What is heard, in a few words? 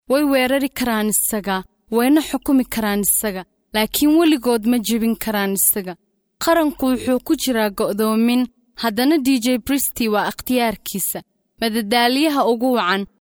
female somali voice over character